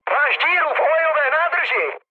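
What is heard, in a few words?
damaged oil, Czech, mood med; War Thunder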